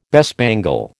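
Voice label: "bespangle", American English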